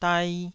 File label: "dai2", Taiwanese syllable